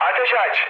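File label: attack position, mood med, Turkish; speech; War Thunder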